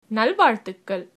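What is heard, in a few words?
good luck, Tamil, female; நல்வாழ்த்துக்கள்; nal vaazthukkal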